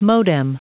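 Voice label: "modem", English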